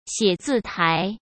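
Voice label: "写字台", Mandarin Chinese; chinese flashcards; hsk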